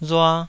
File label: "rua2", taiwanese syllable